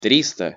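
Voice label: "trista", Russian pronunciation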